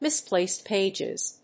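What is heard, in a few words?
"misplaced pages", English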